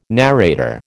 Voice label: "narrator", American